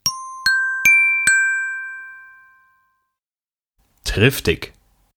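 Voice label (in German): "triftig", German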